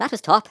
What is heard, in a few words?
"brilliant", Irish; Worms Armageddon